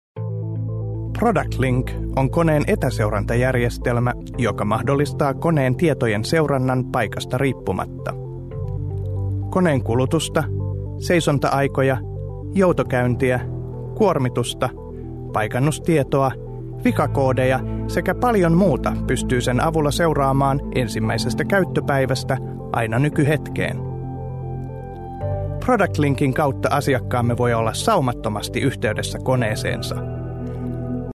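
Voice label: Finnish male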